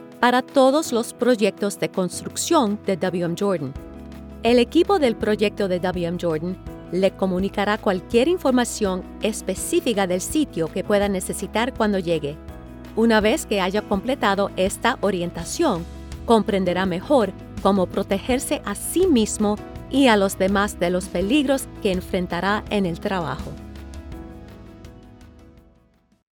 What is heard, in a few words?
Spanish Corporate Video